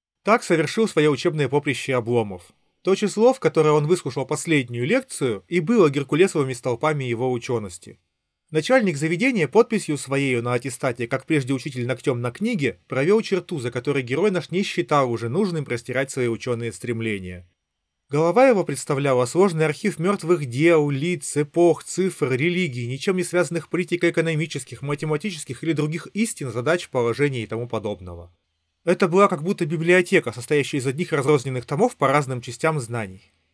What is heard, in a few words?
Recorder; Запись на профессиональный рекордер; ugreen hitune t3 pro